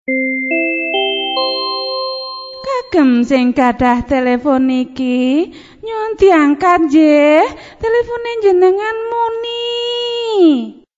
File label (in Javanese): nada dering jawa kagem hang gadah telepon niki diangkat nggeh; dewanvision